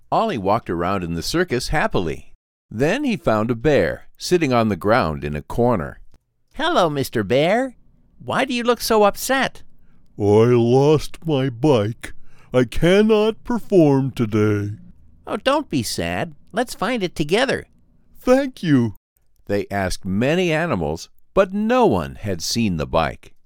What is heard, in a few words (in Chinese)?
外籍英男-童话故事